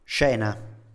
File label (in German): "scena", Italienisch; Szene; Standard-Italienisch